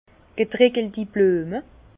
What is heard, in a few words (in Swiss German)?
"getreckelti", Bas Rhin; fleurs séchées; vin